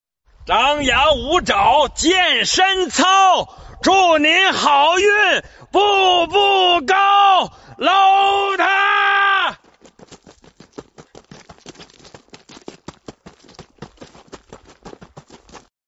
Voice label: chinese man crashing out